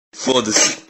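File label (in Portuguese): "foda-se", Portuguese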